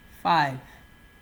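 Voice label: "five", Nigerian Pidgin, male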